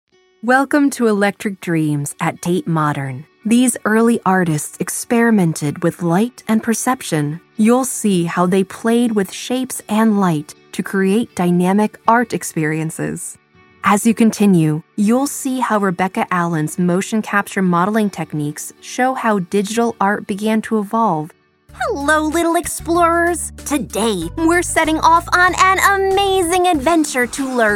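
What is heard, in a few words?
US Corporate and Narrative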